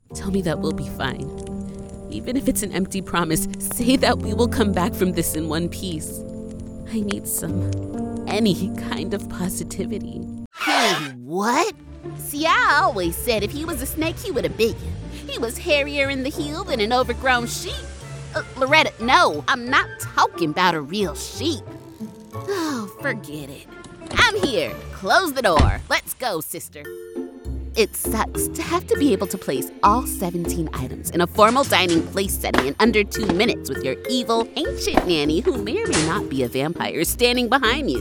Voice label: Animation

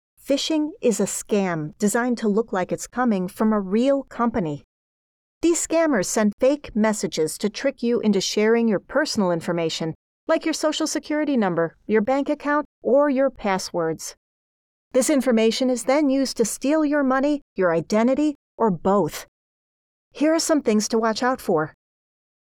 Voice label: e-learning explainer; Phising

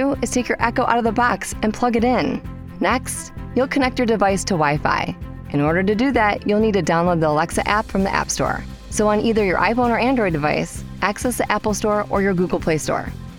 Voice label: Explainer Video

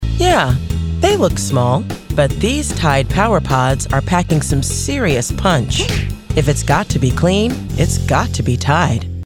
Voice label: Radio Commercial

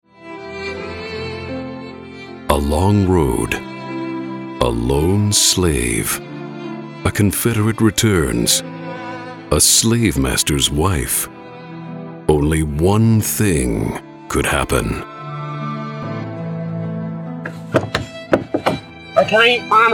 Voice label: TRAILER; Blood Freedom

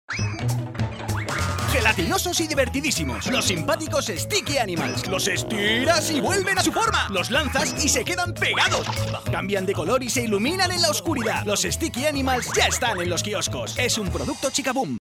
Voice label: Spot TV

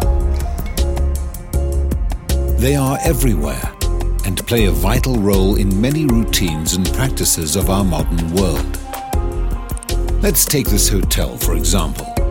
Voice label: Narration on Pumps; Grundfos

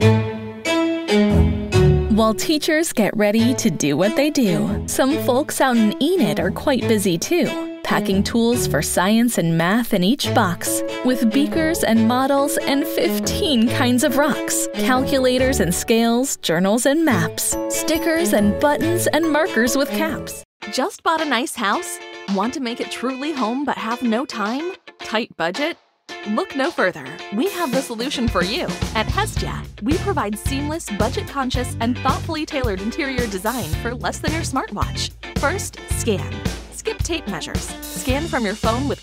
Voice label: Explainer Videos

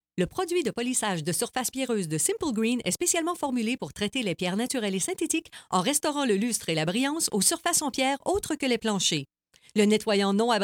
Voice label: Stone Polish FR; SIMPLE GREEN

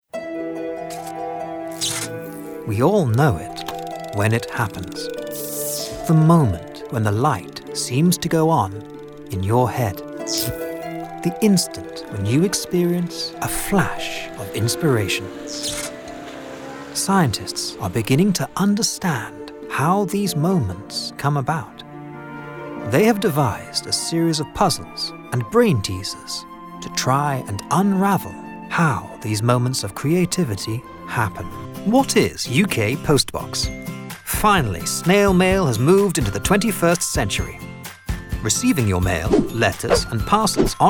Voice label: montage narrative

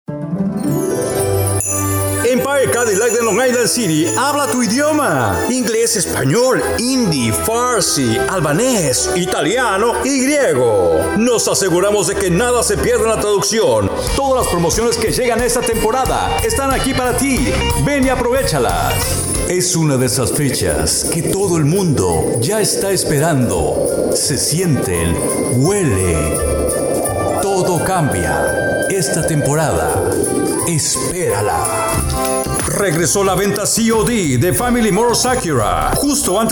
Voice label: Male

